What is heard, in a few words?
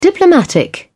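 "diplomatic", British English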